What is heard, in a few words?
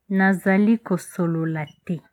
no understand, lingala